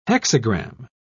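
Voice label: "hexagram", English, British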